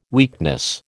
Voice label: "weakness", American